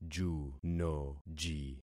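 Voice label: "junoji", concatenated syllables; Affixing experiment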